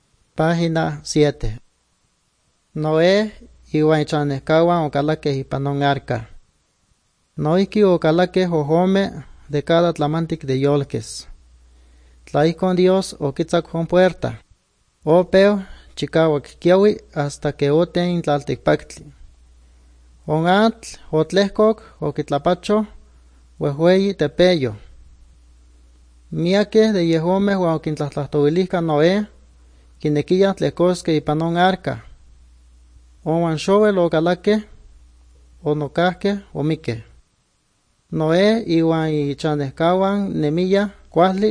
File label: good news, nahuatl guerrero chilapa